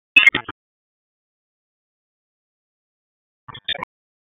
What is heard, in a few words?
Raleigh Police Southwest District Tac, p25; wake p25